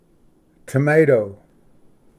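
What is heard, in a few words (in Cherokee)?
"tomato", Cherokee word, male; ᎤᏅᎫᎯᏍᏗ; Cherokee Nation Elder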